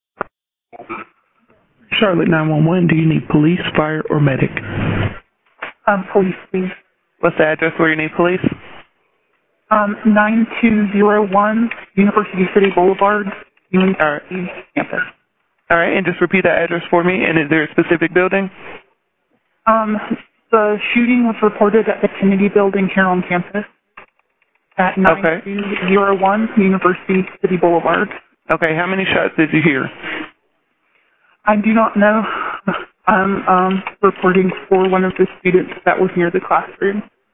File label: 911 call; 9201 University City Bv